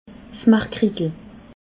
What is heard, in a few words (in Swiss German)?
"margritel", alsace